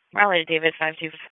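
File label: Raleigh Police Southeast District Dispatch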